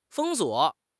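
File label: "封锁", Mandarin Chinese; HSK; HSK6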